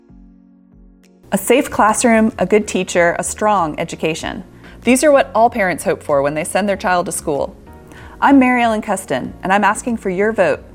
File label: Radio Spot